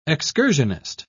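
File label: "excursionist", British English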